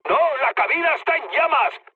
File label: on fire, mood med, speech; War Thunder